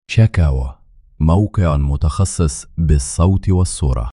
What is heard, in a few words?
tablighati, male; arabic